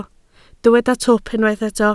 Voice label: "twp", Welsh

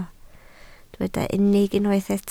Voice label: "unig", Welsh

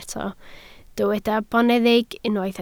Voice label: "boneddig", Welsh

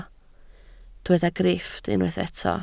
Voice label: "grifft", Welsh

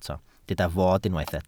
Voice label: "fod", Welsh

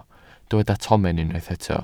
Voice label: "tomen", Welsh

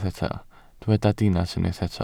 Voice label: "dinas", welsh

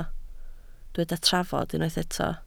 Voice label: "trafod", Welsh

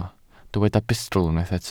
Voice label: "bustl", welsh

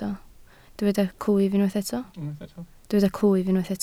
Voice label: "clwyf", Welsh

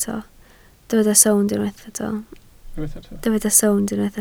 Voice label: "sownd", Welsh

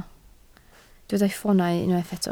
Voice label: "ffoonau", Welsh

